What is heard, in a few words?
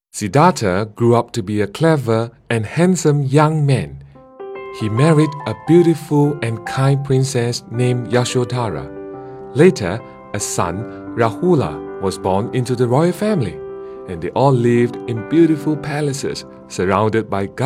Narration